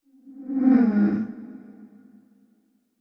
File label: thinking short; yamiko